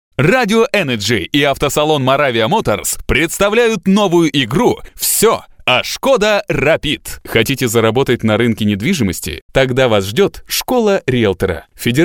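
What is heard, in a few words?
Russian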